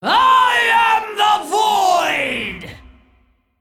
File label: Female Dragon Undead